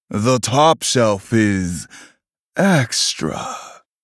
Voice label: Male Human Play